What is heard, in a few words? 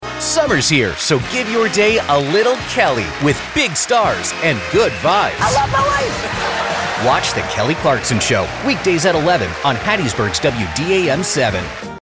Afternoon Talk Show Enthusiastically Inviting